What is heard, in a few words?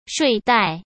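"睡袋", Mandarin Chinese; chinese flashcards; hsk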